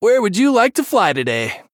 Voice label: Male Human Idle

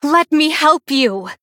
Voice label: Female Human

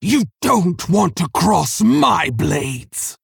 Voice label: Female Orc

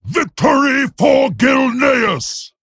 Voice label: Male Worgen Attack

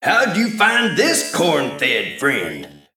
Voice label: Male Mech

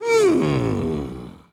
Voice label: Male Ethereal Emote Thinking

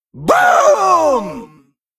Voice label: Male Mech Attack

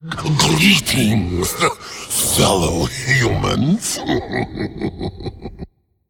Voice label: Male Faceless

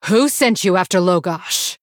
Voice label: Female Blood Elf; Story Hero Valeera; Story Valeera Mission3 Exchange

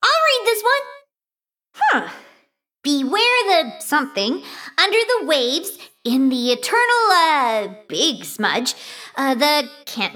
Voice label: Female Mecha Gnome Lorebook

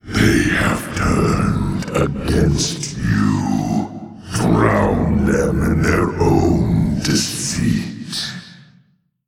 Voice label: Male Old God Bark; Yogg Saron

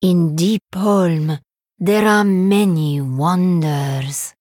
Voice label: Female Draenei Trigger

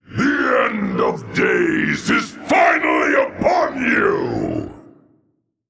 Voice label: Male Old God

